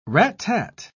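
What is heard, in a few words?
"rat-tat", British English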